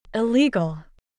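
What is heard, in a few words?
"illegal", English pronunciation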